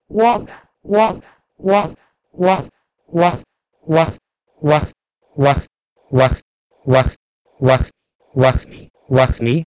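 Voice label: Proto-Indo-European wogh to Sanskrit vahni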